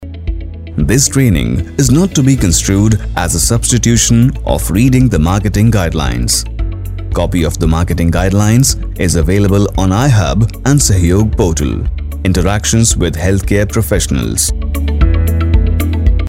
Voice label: English e-learning